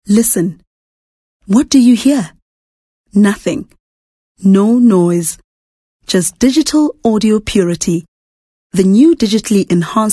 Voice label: English Softsell